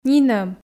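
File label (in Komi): "нинӧм", Komi, female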